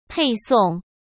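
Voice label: "配送", Mandarin Chinese; hsk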